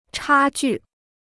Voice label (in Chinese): "差距", Chinese